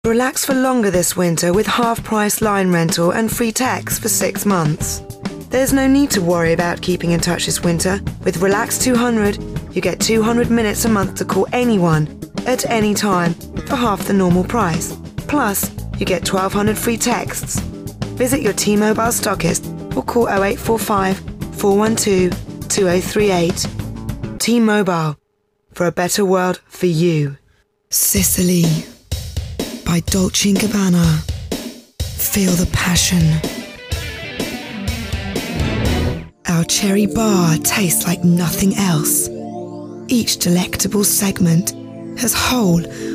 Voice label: Commercial Voice Reel